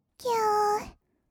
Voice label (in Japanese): 「ぎゅっ」, kawaii voice; 1200 kawaii voice!!; 色々なワンショット